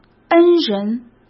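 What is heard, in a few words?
"恩人", Mandarin Chinese; hsk; chinese flashcards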